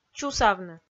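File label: "чусавны", Komi, female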